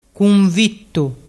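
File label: "cunvittu", Vernazzese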